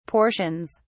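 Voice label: "portions", British English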